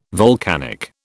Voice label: "volcanic", British

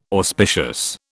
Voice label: "auspicious", British

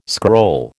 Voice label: "scroll", American

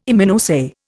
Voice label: "Immunoassay", Indian

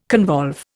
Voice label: "convolve", Indian

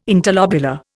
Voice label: "interlobular", Indian

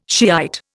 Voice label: "Shiite", Indian

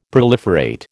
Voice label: "proliferate", American

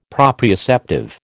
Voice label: "proprioceptive", American

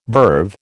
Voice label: "verve", American

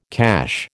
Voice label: "cache", American

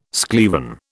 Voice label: "schlieren", British

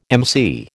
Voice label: "emcee", American

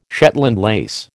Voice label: "Shetland lace", American

